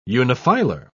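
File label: "unifilar", British English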